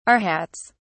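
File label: "arhats", British English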